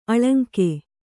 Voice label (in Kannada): "ಅಲಂಗ", Kannada